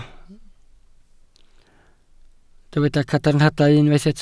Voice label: "cadarnhadau", Welsh

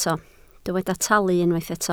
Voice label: "talu", Welsh

